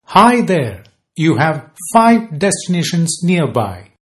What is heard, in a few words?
five, male